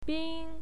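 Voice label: "bin", Mandarin Chinese, first tone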